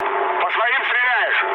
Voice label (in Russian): player friendly fire, mood low, speech ru